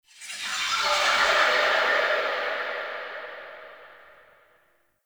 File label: Unnatural Speech; Warframe; Shadowgrapher